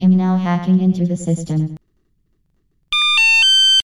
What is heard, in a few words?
HACKING SYSTEM voice